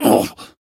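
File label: Voice damaged Japanese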